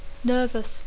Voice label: "nervous", English